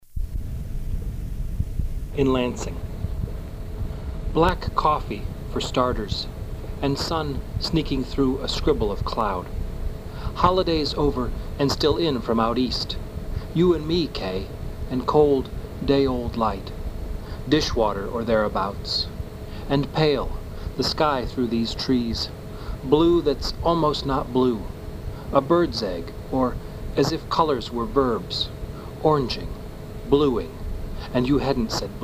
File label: poem